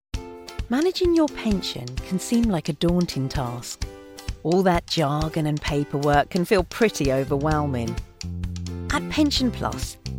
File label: english british commercial